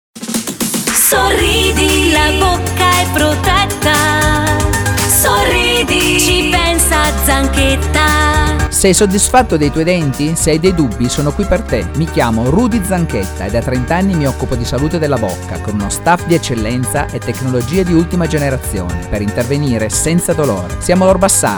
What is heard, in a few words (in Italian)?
Spot dentista voce; orbassano torino